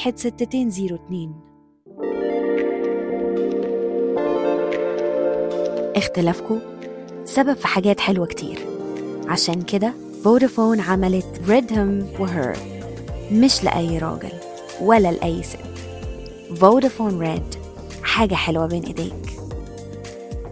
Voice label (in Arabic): تجميعة إعلانات مصرية